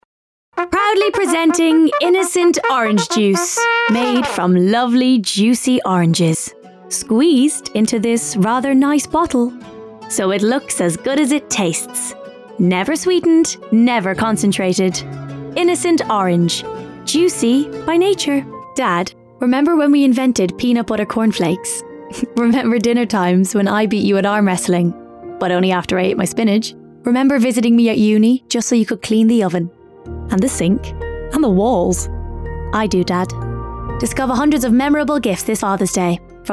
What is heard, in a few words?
Commercial